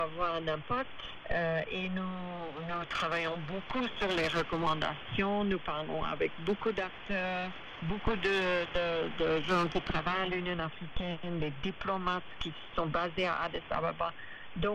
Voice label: 25900khz; BBC Talata Volondry; X-One-AD370

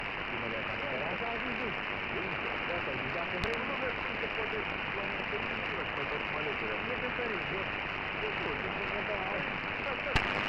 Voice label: 153khz; Antena Satelor; X-One-AD370

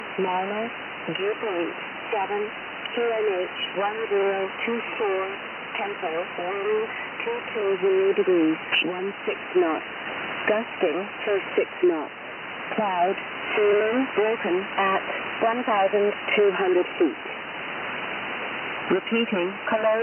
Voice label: Shannon Volmet; 8957khz; X-One-AD370

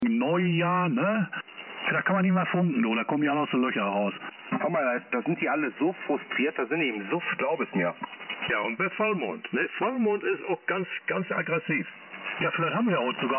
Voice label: Hamradio LSB; 3753khz

